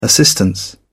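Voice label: "assistance", British English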